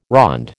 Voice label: "ronde", American